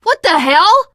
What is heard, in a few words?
friendly fire, teen girl voice; survivor voice